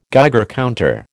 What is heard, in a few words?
"Geiger counter", American